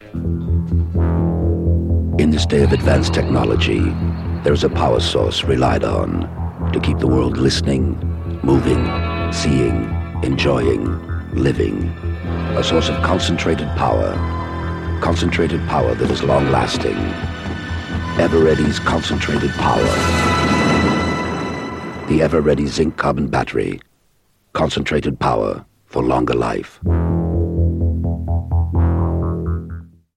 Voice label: Radio Advert; Radio Hallam; EverReady